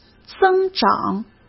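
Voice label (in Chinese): "增长", Mandarin Chinese; chinese-flashcards; hsk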